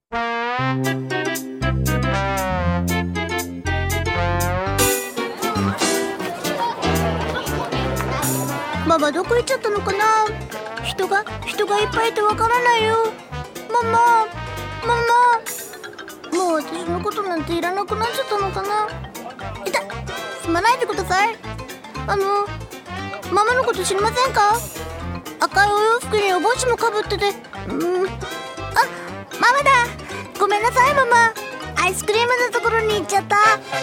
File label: jp various characters